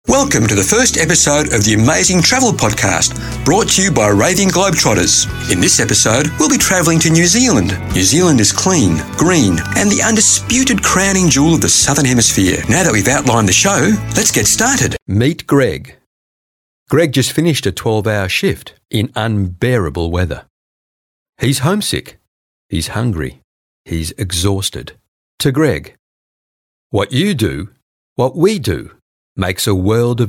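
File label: AU English Commercial, Australian English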